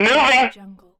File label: female military radio voice moving